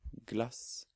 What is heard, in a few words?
"Glass", Norwegian; Learn Norwegian Naturally